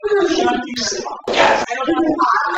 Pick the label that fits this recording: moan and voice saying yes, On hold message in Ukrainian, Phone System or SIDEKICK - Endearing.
moan and voice saying yes